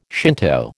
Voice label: "Shinto", American